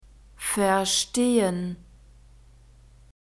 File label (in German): "verstehen", German; alphabet